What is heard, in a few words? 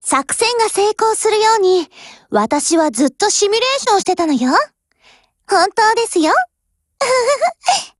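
WIN Japanese, Voice; GF Alarm; F2000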